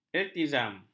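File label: "iltizam", Malay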